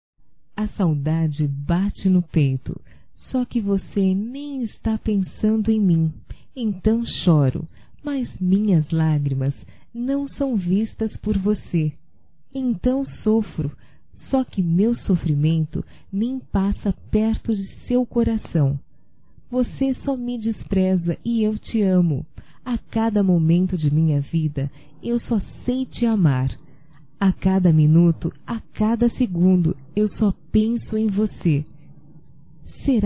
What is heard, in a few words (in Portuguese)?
Me de Uma Chance Feminino, Telemensagens, Só Voz; Telemensagens 2017